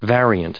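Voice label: "variant", US English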